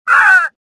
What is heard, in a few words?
pain; XBOX; worker